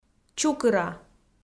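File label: "чукыра", Komi, female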